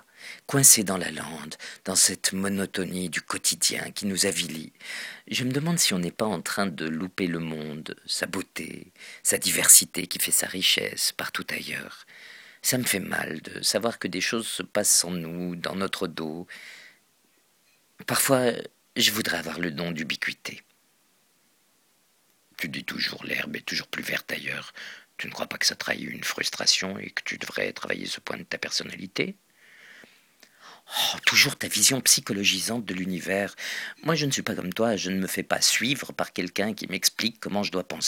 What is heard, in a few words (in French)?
Dialogue de moutons